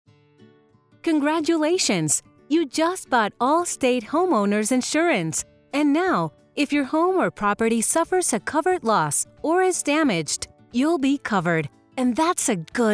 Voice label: E-Learning